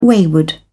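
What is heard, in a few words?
"wayward", British English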